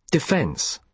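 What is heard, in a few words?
"defence", British English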